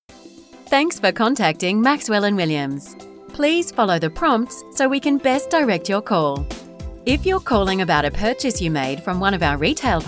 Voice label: IVR Compilation